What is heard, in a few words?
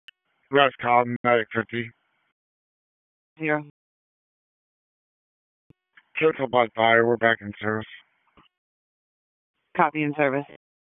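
EMS DISP, radio; wake p25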